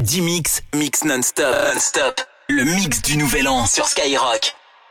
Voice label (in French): JINGLE MIX NOUVEL AN; SKY DIMIX; SKYROCK